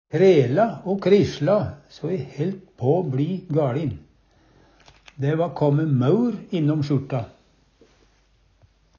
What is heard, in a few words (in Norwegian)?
krisle, Numedalsmål